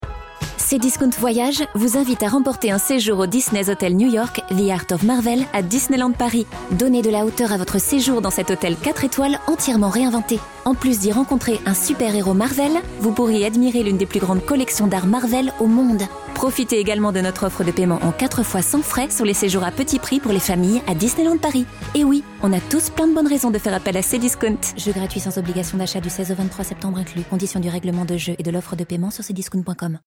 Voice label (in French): PUB Radio FR; Cdiscount; disney